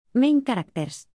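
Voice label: "main characters", English words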